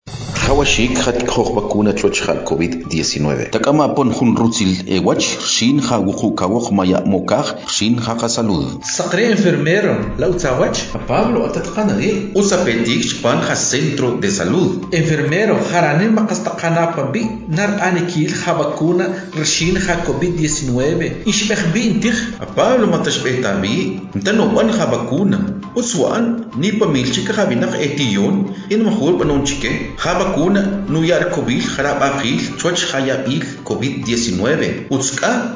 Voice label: Spot Tzutujil